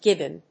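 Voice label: "gibbon", English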